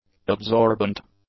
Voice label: "absorbent", english; adjectives

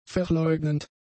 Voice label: "verleugnend", German; adjectives

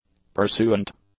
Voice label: "pursuant", english; adjectives

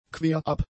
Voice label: "querab", German; adjectives

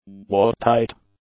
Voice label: "watertight", English; adjectives

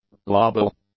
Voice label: "labile", english; adjectives

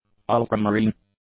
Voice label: "ultramarine", English; adjectives

